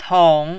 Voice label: "tong3", mandarin

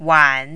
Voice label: "wan3", Mandarin

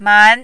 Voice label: "man2", mandarin

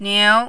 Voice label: "niu2", mandarin

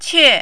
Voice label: "qie4", mandarin

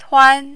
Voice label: "tuan1", mandarin

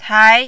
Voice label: "tai2", mandarin

